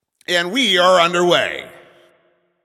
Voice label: Game Start, Announcer

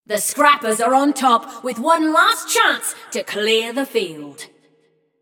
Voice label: Scrappers Lead, Announcer; Flux; Scraprun

